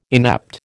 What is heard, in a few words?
"inept", American